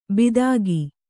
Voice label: "ಬಿದಾಗಿ", Kannada

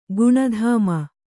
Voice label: "ಗುಣಧಾಮೆ", kannada

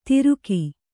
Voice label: "ತಿರುಕಿ", Kannada

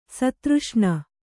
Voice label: "ಸ್ಥಿರೋಷ್ಣ", Kannada

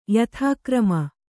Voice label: "ಯಥಾಕ್ರಮ", Kannada; yathākrama; noun

